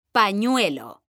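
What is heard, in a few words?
"pañuelo", Spanish, female; mekanta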